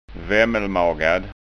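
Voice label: "vammelmagad", Scanian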